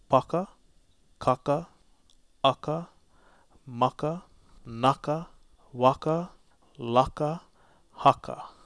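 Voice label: Hawaiian consonants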